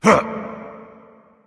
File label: grunt; smite; sobek